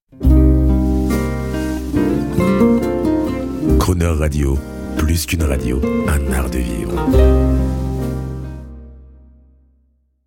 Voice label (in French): JINGLE